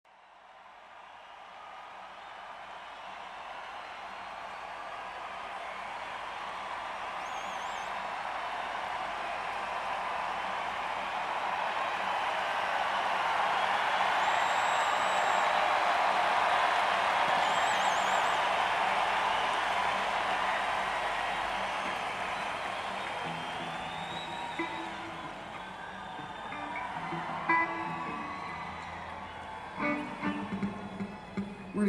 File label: banter